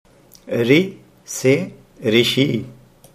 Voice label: "rishi", Hindi